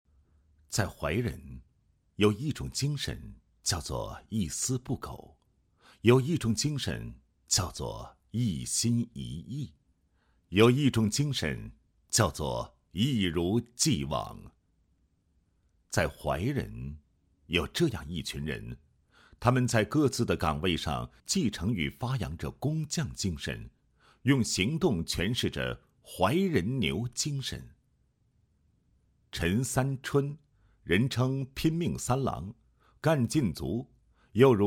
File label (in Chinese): 男, 企业宣传; 怀仁公司